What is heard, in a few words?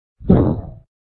grunt